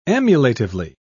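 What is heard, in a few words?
"emulatively", British English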